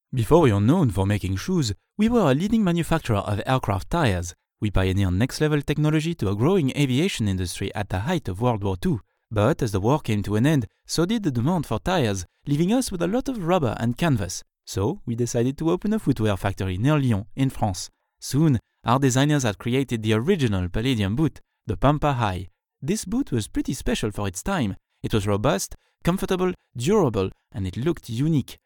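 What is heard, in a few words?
French male English Explainer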